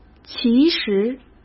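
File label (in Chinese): "其实", Mandarin Chinese; hsk; chinese-flashcards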